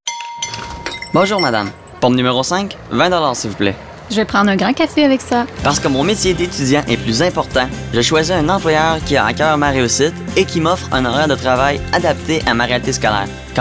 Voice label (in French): Publicite radio fr